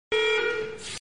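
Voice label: "ficken", German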